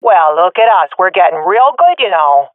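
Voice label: round won, swat female; vo; agents; Source Model Packs; Counter Strike Model Pack; CS2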